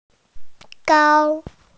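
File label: "gao1", Mandarin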